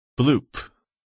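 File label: "bloop", English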